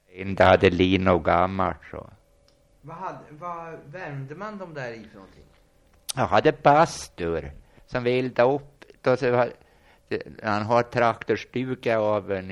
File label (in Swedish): Västland, dialekter; Svenska sagor; Folkminnen